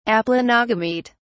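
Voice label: "aplanogamete", British English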